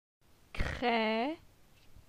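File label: "Cre", French; phonetique; la syllabe e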